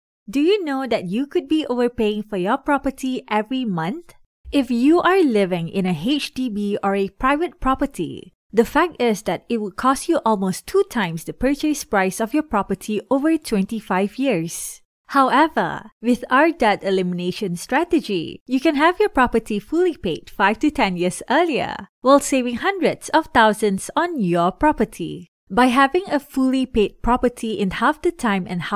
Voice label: 马来西亚语女, 外语, 小语种

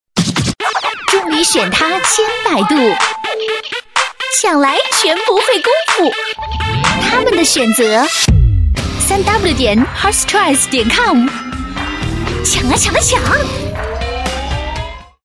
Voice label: 广告 互联网 可爱, 女国; 抢来网

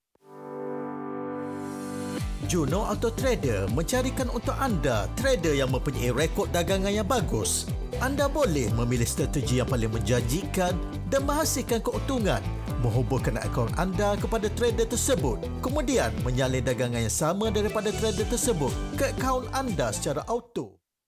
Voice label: 马来西亚语男, 外语, 小语种, 活力; Narapidana

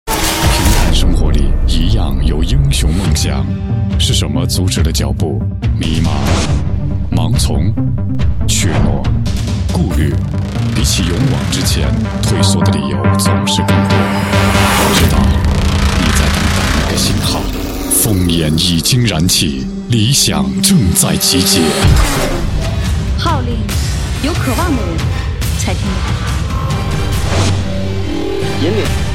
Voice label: 广告 招聘; 广东卫视炫风车手招募宣传

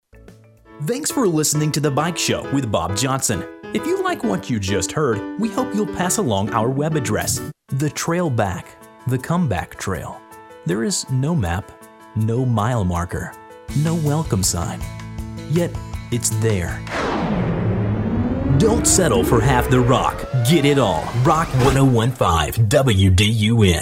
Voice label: 外籍男英 外语 美式英语 样音集锦年轻活力 活力

